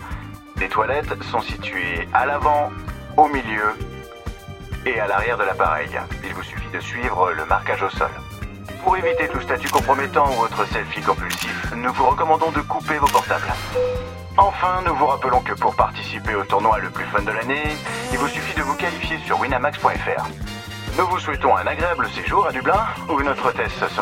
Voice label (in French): Voix Commandant de bord; WINAMAX